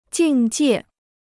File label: "境界", Chinese